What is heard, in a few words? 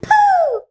"Puh", shout; Best Service Hyper Dance